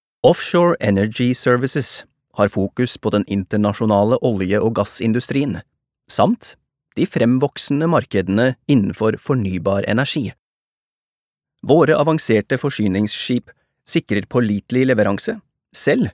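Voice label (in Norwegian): informativ, Norwegian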